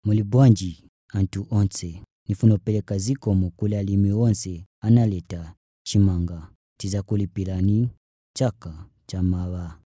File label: Chichewa, Male